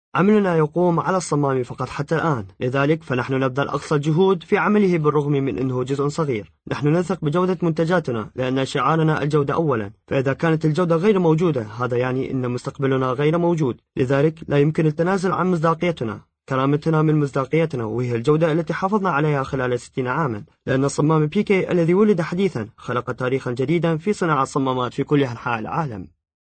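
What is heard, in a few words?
Arabic, male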